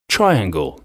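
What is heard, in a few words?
"triangle", English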